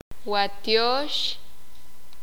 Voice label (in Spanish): Altar, sakapulteko